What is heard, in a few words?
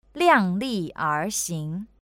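"lianglierxing", Chinese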